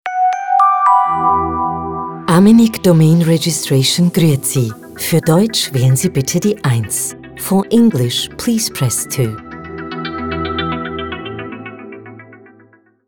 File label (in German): Deutsch; Call Monitoring “neutral”; Amenic